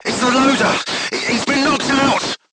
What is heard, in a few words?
voice message charger contused, crew tanks portuguese